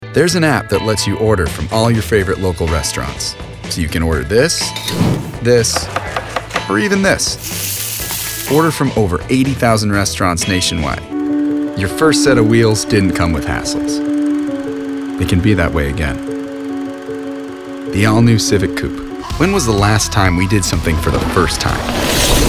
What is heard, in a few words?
Commercial